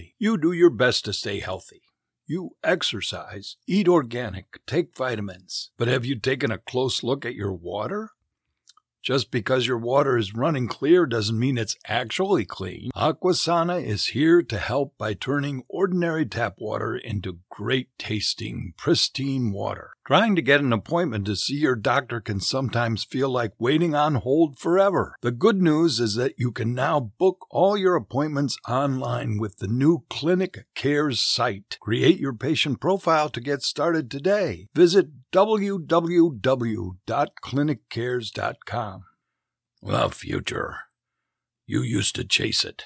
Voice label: studio